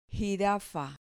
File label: "jirafa", Spanish